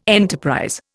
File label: "enterprise", Indian